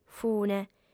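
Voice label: "fune", Sardinian, female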